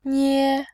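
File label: "nie", Mandarin, tone 1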